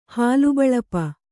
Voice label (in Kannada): "ಹಾಲುಬಳಪ", Kannada